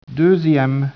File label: speech, French